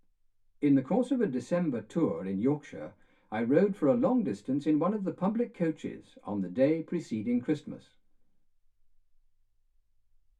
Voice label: male speech english